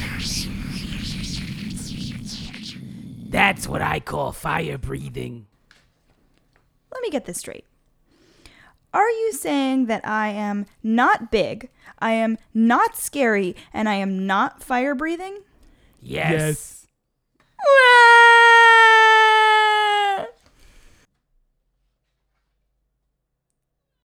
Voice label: roar narration